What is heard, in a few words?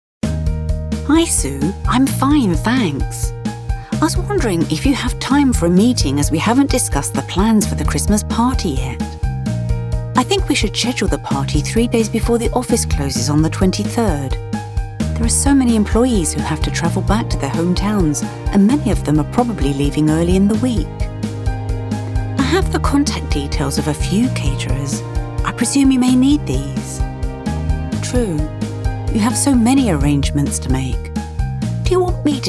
Lovely Voice Listening Test